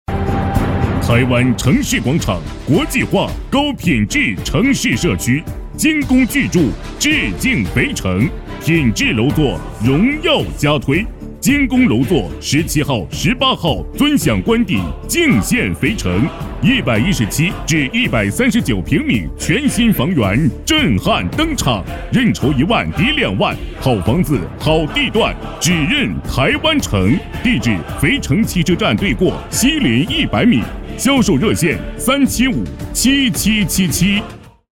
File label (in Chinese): 男 楼盘广告